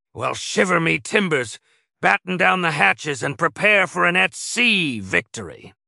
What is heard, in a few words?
happy; fertig classic games; schooner